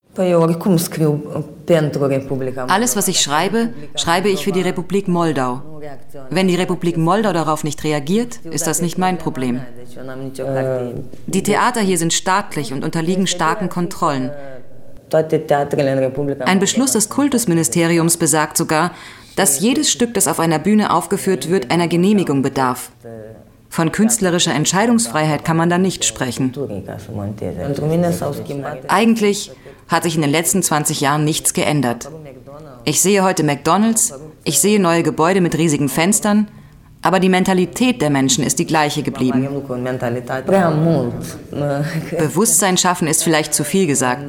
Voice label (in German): Voice-over; Goetheinstitut